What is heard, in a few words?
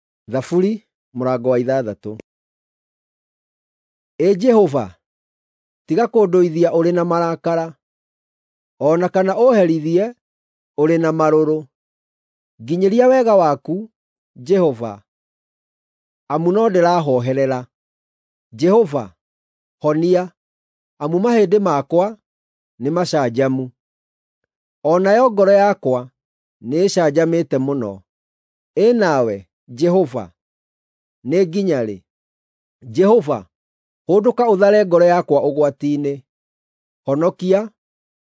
psalms, gikuyu drama